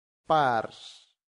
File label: "pars", latin; santillana